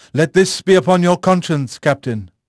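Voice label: English male; Pirates Of The Caribbean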